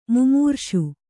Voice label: "ಮೈಮರೆಸು", Kannada

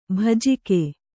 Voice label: "ಭೋಜಕ", Kannada

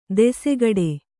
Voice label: "ದಾಸಗೌಡ", Kannada

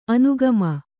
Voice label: "ಅನುಗಾಮಿ", Kannada

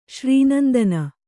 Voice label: "ಶ್ರೀನಂದನ", Kannada

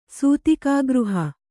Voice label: "ಸೂತಿಕಾಗೃಹ", Kannada

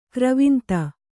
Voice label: "ಗರ್ವಾಂಧ", Kannada

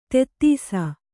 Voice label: "ಧೂತಿಸು", Kannada

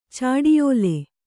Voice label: "ಚಾಡಿಯೋಲೆ", kannada

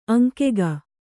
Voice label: "ಅಂಗಿಗೆ", Kannada

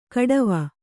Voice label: "ಖಟ್ವ", Kannada

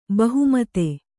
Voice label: "ಬಹುಮತಿ", kannada

